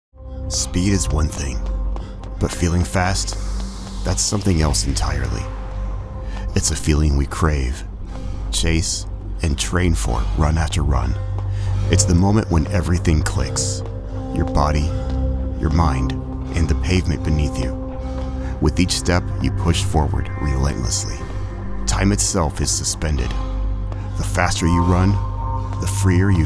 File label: Shoe Commercial, Introspective; Television Spots; Commercial Demo Reel